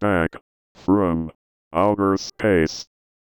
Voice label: VOICEFX ELCTR 140; Techno2